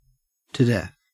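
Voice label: "death", American English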